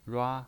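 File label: "rua", Mandarin Chinese pinyin voice, first tone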